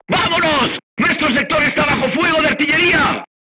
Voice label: voice message art shooting warning, crew tanks spanish